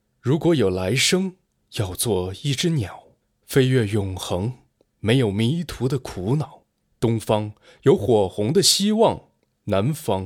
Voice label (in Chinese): 情感类